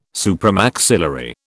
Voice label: "supramaxillary", British